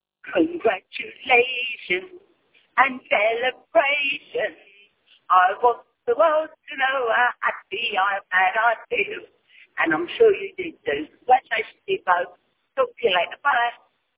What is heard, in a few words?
voicemail